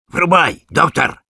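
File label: Sniper activate charge, russian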